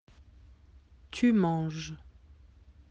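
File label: "manger", French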